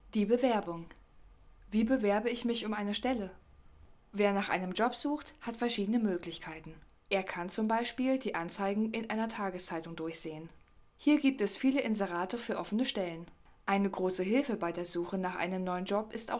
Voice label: GERMAN